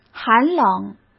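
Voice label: "寒冷", Mandarin Chinese; chinese-flashcards; hsk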